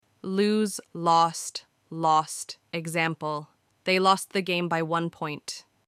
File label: "lose", verbes irreguliers en anglais